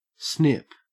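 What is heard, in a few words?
"snip", Australian English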